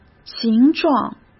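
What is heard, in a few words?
"形状", Mandarin Chinese; chinese-flashcards; hsk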